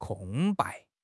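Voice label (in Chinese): "空白", Chinese; HSK6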